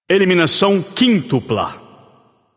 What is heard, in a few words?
alert notify kill, portuguese; caster